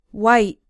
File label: "white", English